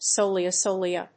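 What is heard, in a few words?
"Solea solea", English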